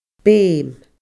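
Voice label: "beum", Scottish Gaelic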